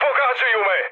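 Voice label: Serbian aircraft damaged mood high, speech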